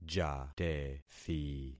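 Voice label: "jatethi", concatenated syllables; Affixing experiment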